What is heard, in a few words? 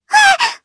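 voice, Japanese; Hanus; kingsraid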